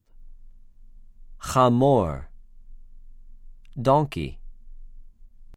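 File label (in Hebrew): "חֲמוֹר", Hebrew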